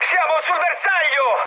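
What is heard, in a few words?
Italian near waypoint mood high, speech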